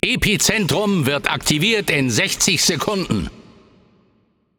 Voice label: German Survival Begin; Paladins